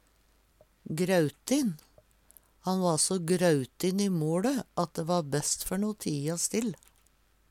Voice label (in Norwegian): grautin, Numedalsmål; Adjektiv